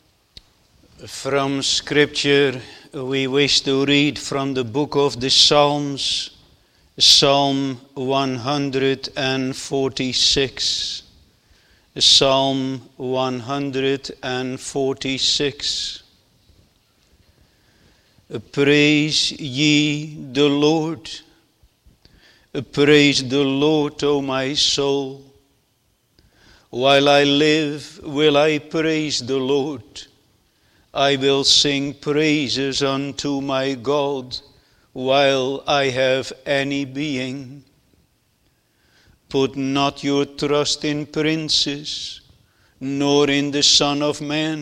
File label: Bible Reading; True Blessedness